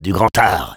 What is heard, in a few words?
positive vocalization, fr; Sniper